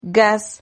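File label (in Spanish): "gas", Spanish syllable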